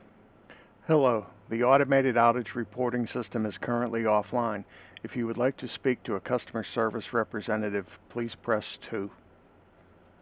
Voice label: Voice IVR Is Disabled Message